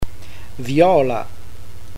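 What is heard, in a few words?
"viola", Italian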